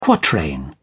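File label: "quatrain", British English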